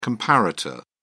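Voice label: "comparator", British English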